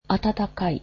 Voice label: warm, japanese